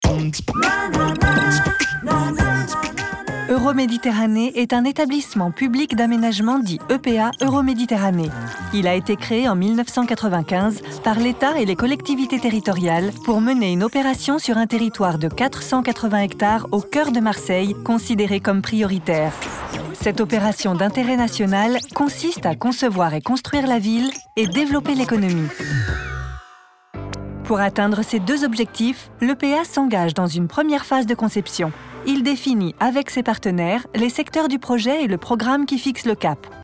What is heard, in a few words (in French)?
Institutionnel; EUROMEDITERRANEE